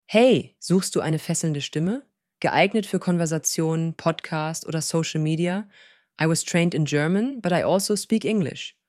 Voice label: friendly and fun